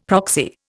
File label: "proxy", Indian; Dictionary